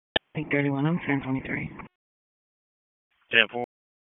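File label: Sheriff's Office Dispatch 1, p25; wake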